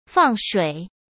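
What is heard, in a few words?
"放水" Mandarin Chinese; chinese flashcards; hsk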